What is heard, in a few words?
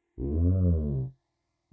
Dialogue speech; Hylics